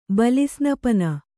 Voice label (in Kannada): "ಬಲಿಸ್ನಪನ", Kannada